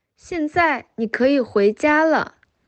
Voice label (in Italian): Cinese